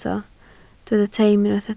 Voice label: "teim", Welsh